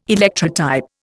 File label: "Electrotype", Indian